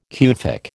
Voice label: "Kufic", American